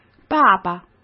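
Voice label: "爸爸", Mandarin Chinese; chinese-flashcards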